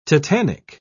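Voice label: "tetanic", English, British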